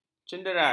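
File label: "cenderai", Malay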